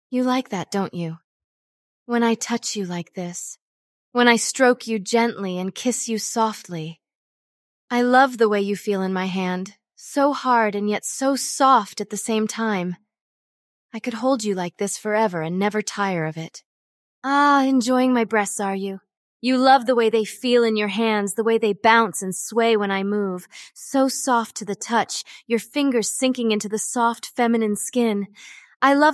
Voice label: synthesized audio